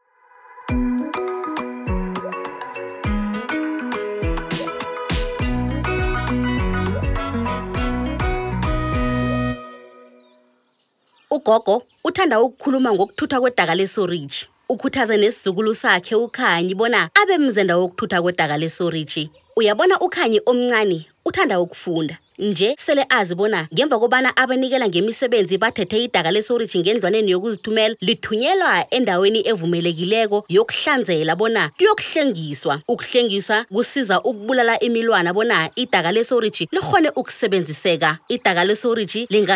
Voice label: Users Treatment Ndebele; Sanitation

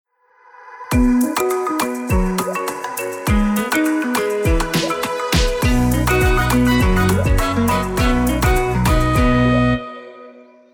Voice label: English; Sanitation; Service Providers; Reuse

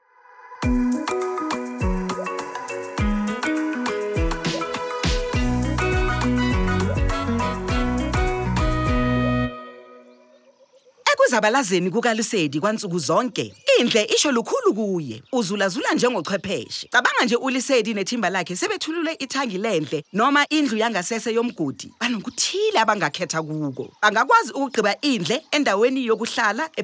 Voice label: Zulu, Audio Soundbites; Sanitation; Service Providers; Treatment

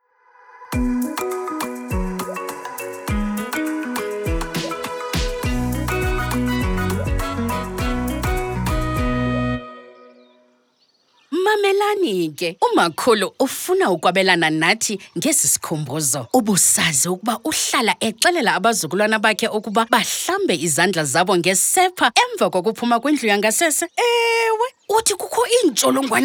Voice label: Users Containment Xhosa; Sanitation; DWS; Audio Soundbites

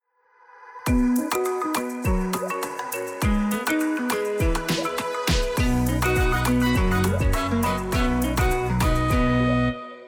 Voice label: Users Containment Tsonga; Sanitation; DWS; Audio Soundbites

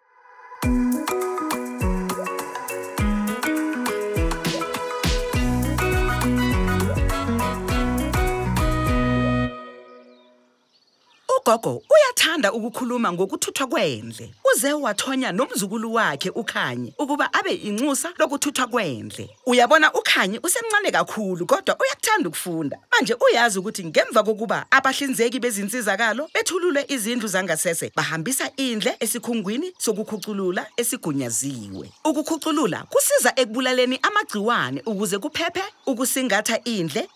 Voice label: Zulu; Sanitation; Treatment

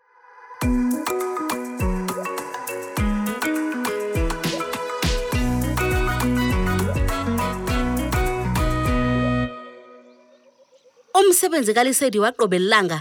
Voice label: Ndebele; Sanitation; Service Providers; Treatment; Audio Soundbites

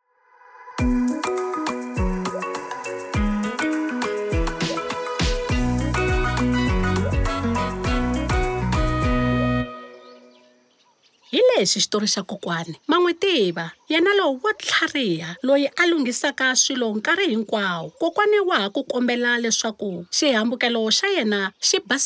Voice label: Users Disposal Tsonga; Sanitation

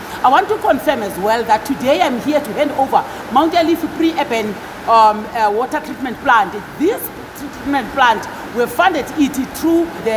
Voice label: English Minister; Majodina on Mt Ayliff peri-urban BWS Project

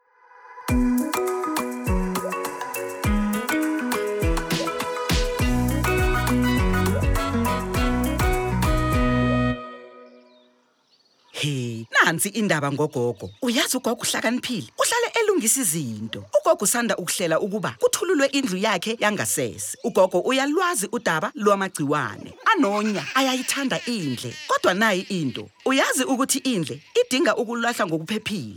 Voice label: Users Disposal Zulu; Sanitation

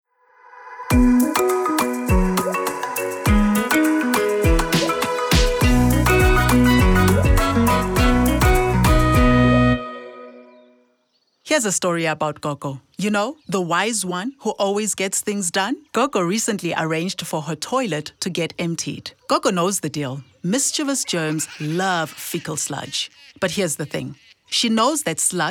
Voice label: English, Audio Soundbites; Sanitation

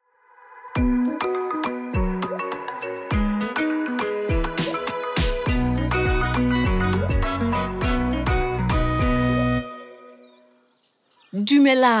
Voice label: Users Containment Setswana; Sanitation; Audio Soundbites

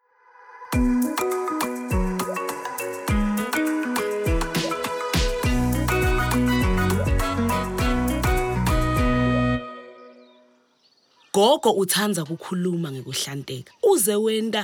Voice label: Siswati; Sanitation; Treatment

